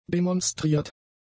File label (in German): "demonstriert", german; adjectives